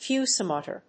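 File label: "fusimotor", English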